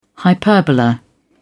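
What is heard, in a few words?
"hyperbola", English, British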